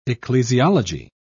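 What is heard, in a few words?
"ecclesiology", British English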